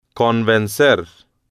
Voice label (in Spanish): "convencer", Spanish